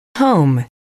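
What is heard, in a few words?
"to home", English, female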